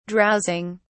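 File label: "drowsing", British English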